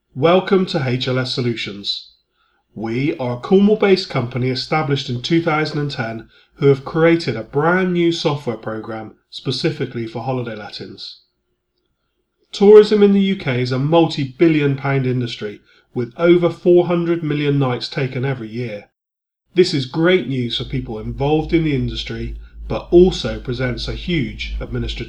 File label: voiceover